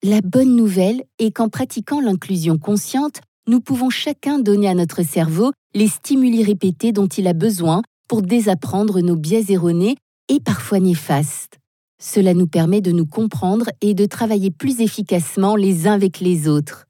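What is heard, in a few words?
French, e-learning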